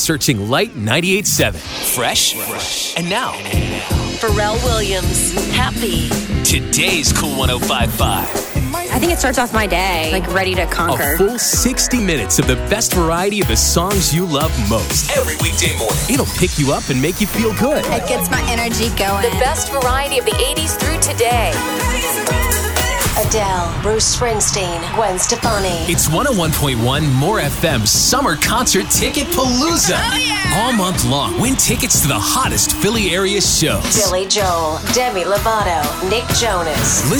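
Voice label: radio hot adult contemporary; radio imaging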